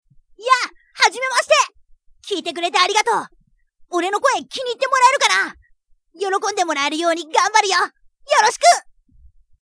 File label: boy